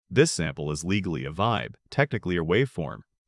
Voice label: Casual and conversational, with a dry edge that works for informal narration.; casualdryconversational